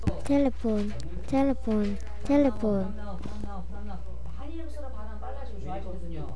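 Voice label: "telephone", spoken word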